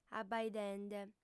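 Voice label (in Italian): "abaidende", lingua sarda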